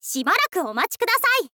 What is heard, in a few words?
"shibaraku omachi", info girl voice